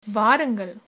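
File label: welcome, Tamil, female; வாருங்கள்; vaarungal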